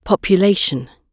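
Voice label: "population", British English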